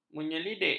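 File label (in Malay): "menyelidik", Malay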